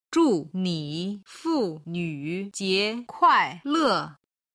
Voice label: "zhu ni funvjie kuaile", Chinese

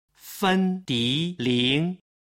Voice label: "fendiling", Chinese

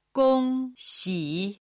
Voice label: "gongxi", Chinese

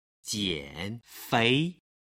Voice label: "jianfei", Chinese

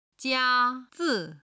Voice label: "jia1 zi5", Chinese

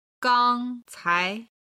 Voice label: "gang1cai2", Chinese

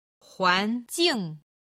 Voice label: "huan2jing4", Chinese; HSK3級

